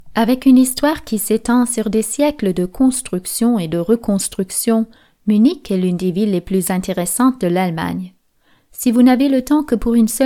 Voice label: Franzoesisch Audio guide, French; Audioguide Munich (French)

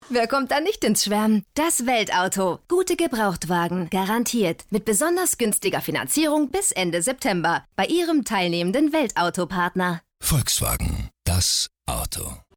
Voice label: spot; vw

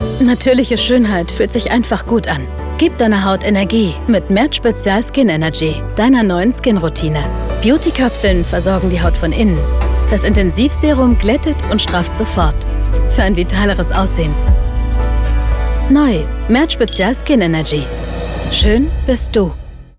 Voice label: TV Spot; Merz Spezial; LOFT VOICES

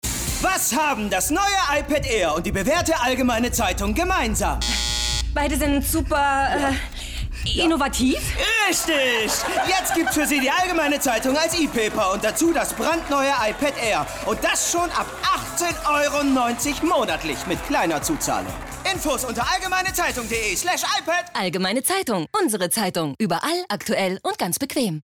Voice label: Comedy, German; Funk Allgemeine Zeitung

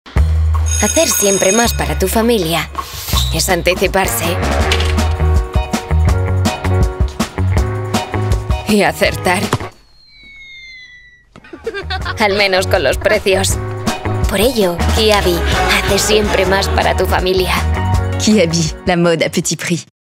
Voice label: Spot; Kiabi

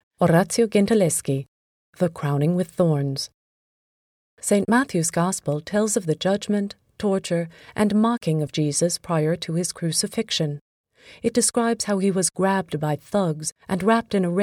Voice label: Audioguide, Narrative, English NEUTRAL; Gentilleschi

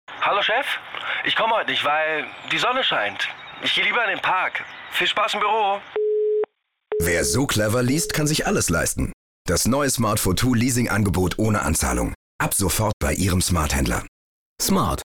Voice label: funk ad; SMART

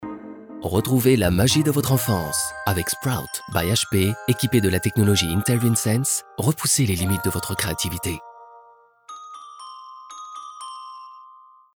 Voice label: spot cinema, voix off homme; sprout by hp

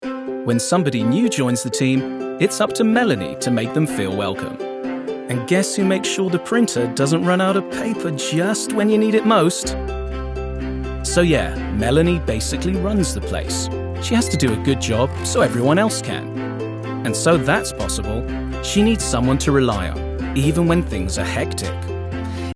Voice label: imagefilm; Service Partner One

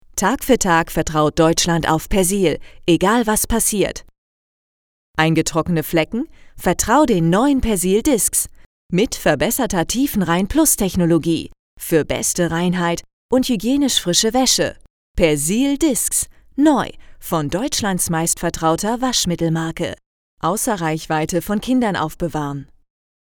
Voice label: Commercial; Persil

